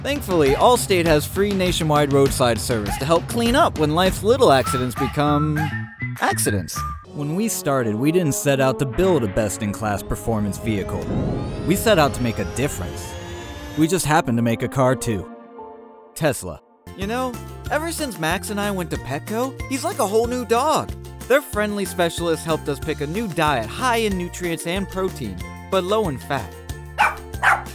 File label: Commercial